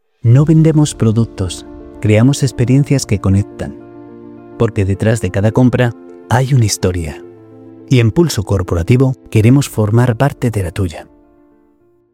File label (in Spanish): video institucional campaña de marca emocional; Demo para video institucional – campaña de marca emocional